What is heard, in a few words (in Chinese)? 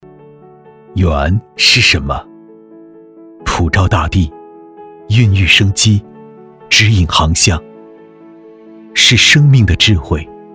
男 讲述